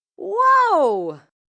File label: "Whoa", Reaction, positive, female; Exclamation Sound Effects